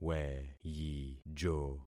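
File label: "weyijo", concatenated syllables; Affixing experiment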